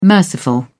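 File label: "merciful", British English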